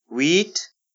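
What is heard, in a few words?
"wheat", Nepali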